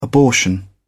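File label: "abortion", English, British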